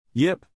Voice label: "yip", English, neural; animal sounds in english; small dogs; Stephen

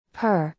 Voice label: "purr", English; звуки животных на английском; кошка; Salli

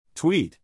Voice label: "tweet", English, neural; animal sounds in english; Stephen

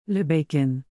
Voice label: "le bacon", Québécois French; le bacon l’argent money

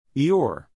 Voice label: "eeyore", English, neural; animal sounds in english; The official British version; Stephen

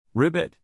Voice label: "ribbit", frogs, neural; animal sounds in english; Stephen

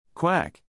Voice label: "quack", ducks, neural; animal sounds in english; Stephen

